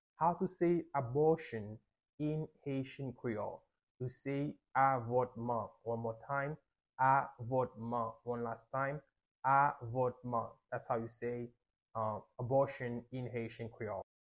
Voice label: abortion in Haitian Creole Avotman with pronunciation